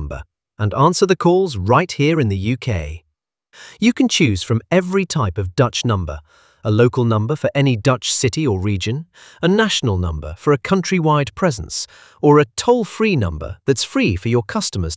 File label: netherlands landing en; phone numbers netherlands